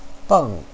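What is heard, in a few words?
"beng4", Mandarin Chinese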